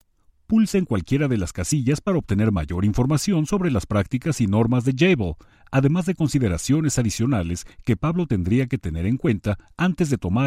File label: mexico